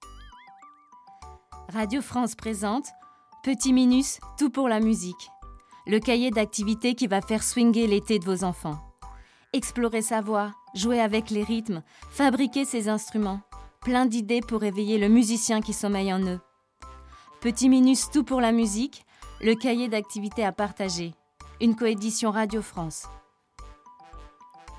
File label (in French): radio; Petit Minus